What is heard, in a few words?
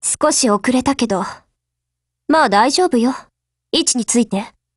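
Japanese, Voice; GF Alarm; PzB39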